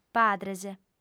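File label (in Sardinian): "padres", female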